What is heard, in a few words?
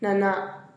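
"nanaa", Teotitlán del Valle Zapotec; saber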